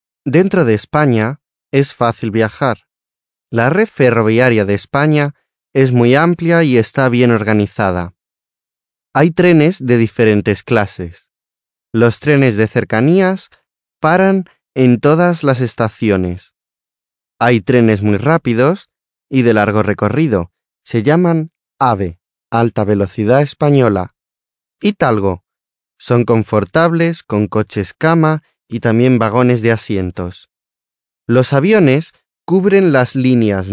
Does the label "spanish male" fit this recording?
Yes